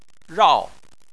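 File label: "rao", Mandarin Chinese, fourth tone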